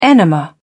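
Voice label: "enema", British English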